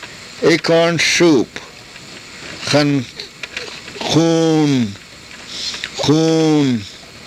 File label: "xuun", karuk